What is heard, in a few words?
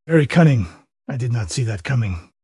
upset; kage; fertig classic games